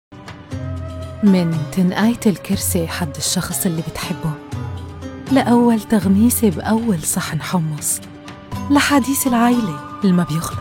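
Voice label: Lebanese Cafe Beirut, Accents and Dialects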